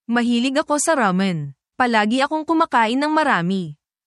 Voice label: tagalog, tagalog filipino phrases; wikang hapon para sa lugar ng trabaho at pang araw araw na buhay

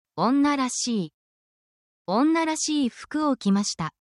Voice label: describing states in japanese; 状態

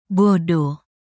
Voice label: indonesia, negative phrases

